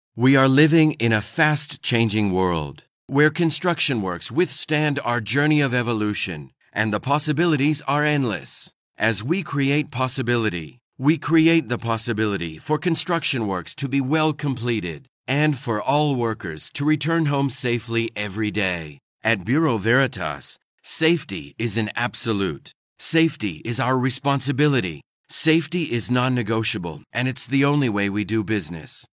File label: Corporate Video; Bureau Veritas